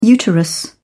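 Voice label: "uterus", British English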